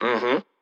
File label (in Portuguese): Confirmaçao neutra